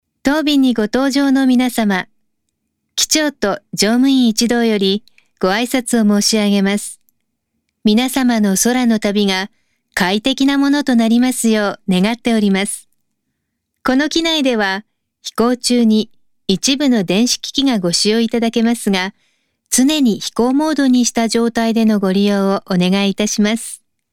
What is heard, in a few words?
Airlines Cabin Announcement; Iberia